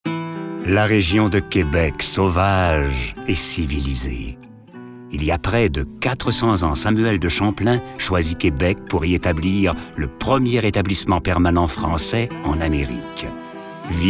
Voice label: narration